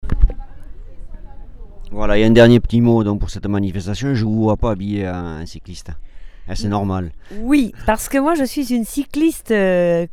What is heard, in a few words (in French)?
interview radio; bulle partie 2